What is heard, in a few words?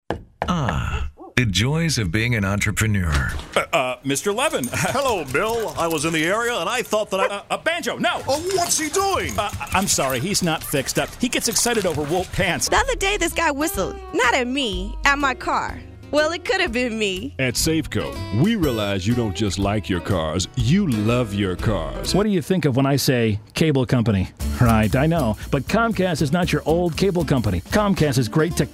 commercial